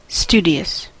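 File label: "striking", English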